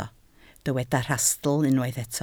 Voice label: "rhastl", Welsh